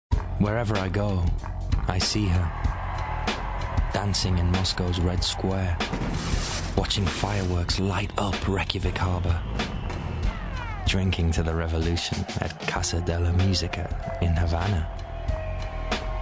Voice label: male english slight northern accent commercial; english northern accents